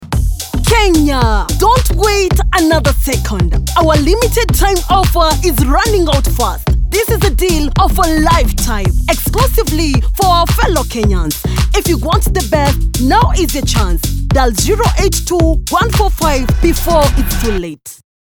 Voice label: KENYAN FEMALE

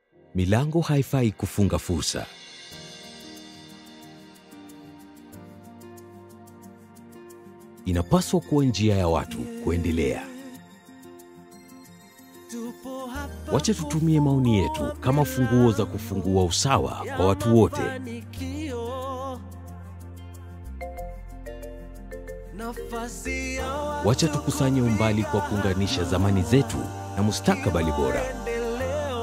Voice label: SWAHILI - KENYAN TANZANIAN ACCENT; KCB Ni Yetu

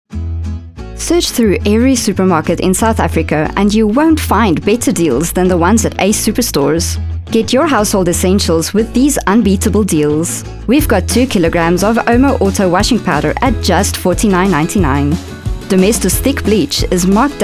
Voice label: English

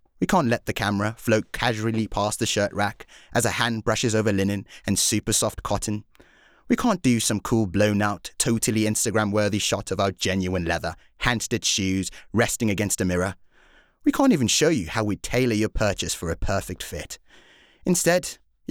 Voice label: VO Gypsy; Bohem

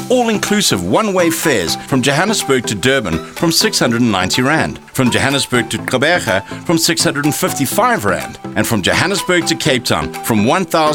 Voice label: Live Read; SAA

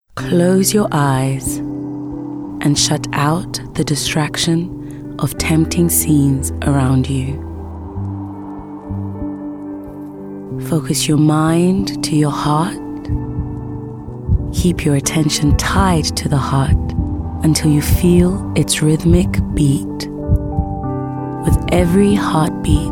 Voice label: Narration Mashup

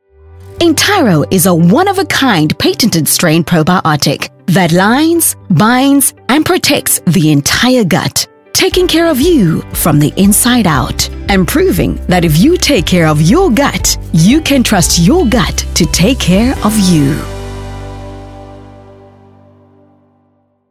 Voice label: Health Advisor Corporate

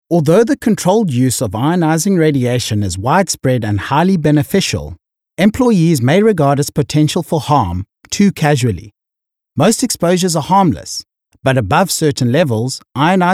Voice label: Medical System Explainer; GE

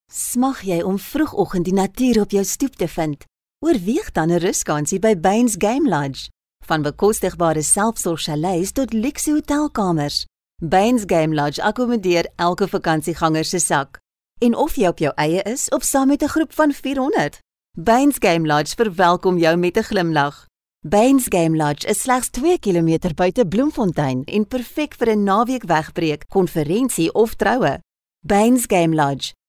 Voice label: Radio Commercial Afrikaans

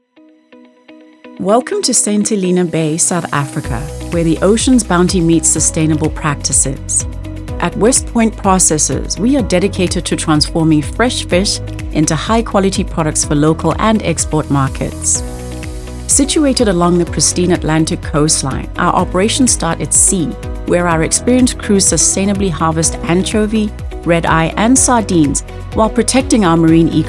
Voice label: Corporate Explainer Professional

